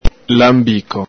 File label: "lanbico", Venetian